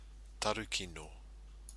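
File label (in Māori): "taru kino", Māori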